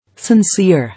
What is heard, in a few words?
"Sincere", English